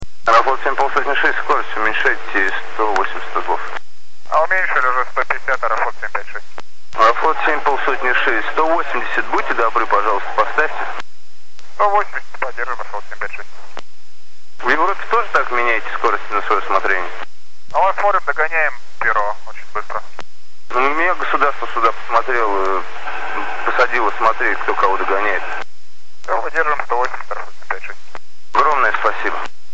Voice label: radar atc argued pilot; afl 756